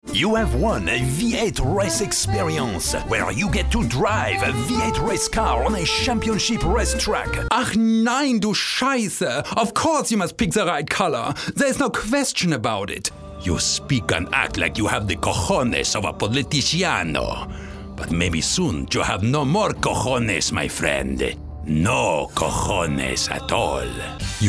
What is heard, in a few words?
ACCENTS